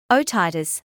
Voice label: "otitis", medical English